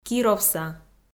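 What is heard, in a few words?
"кировса", Komi, female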